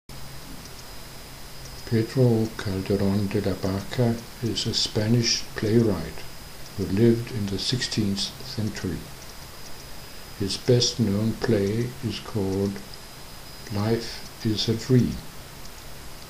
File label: english; Madrid; Calderon; Barca